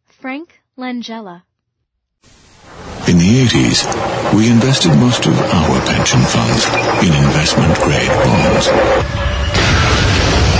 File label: commercial, men